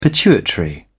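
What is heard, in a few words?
"pituitary gland", British English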